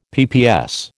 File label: "PPS", American